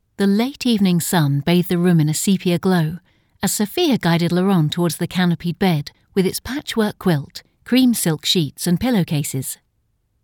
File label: english british audiobooks